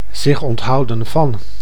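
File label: "zich onthouden van", Dutch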